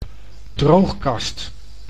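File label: "droogkast", Dutch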